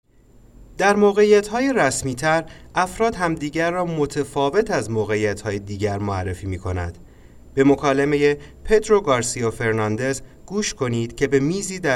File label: Getting formal with strangers, spanish